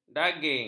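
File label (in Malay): "daging", Malay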